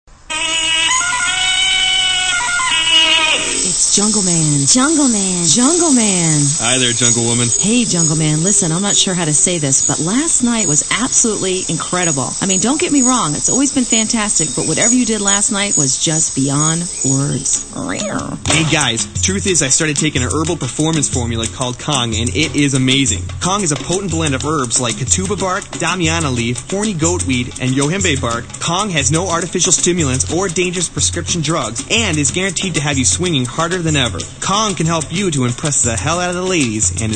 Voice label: radio ad